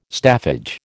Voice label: "staffage", American

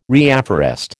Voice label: "reafforest", American

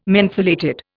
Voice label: "mentholated", Indian

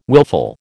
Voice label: "willful", American

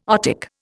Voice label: "otic", Indian

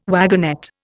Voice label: "wagonette", Indian

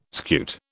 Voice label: "scute", British

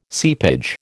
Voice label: "seepage", American

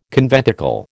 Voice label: "conventicle", American

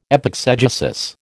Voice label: "epexegesis", American

